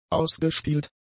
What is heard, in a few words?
"ausgespielt", German; adjectives